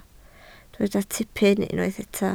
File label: "tipyn", Welsh